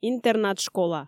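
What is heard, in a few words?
"интернат-школа", Komi, female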